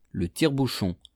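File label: "le tire bouchon", French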